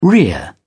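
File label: "rear", British English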